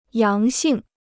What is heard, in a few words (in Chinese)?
"阳性", Chinese